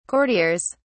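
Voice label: "courtiers", British English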